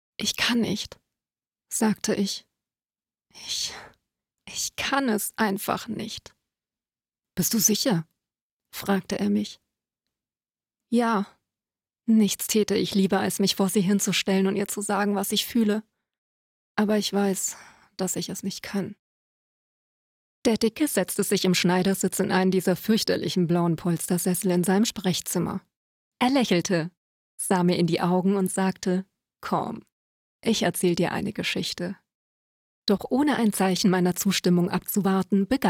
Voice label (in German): Hoerbuch